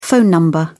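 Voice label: "phone number", British English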